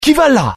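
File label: curious, Italian; mohaa; dialogue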